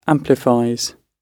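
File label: "amplifies" British English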